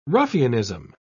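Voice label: "ruffianism", English, British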